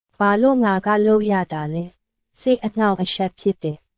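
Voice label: Myanmar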